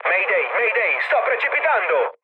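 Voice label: Italian aircraft destroyed mood low, speech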